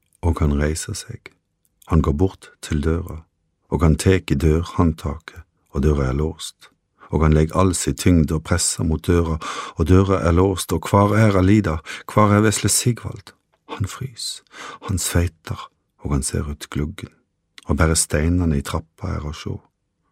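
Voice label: Norwegian Audiobook Male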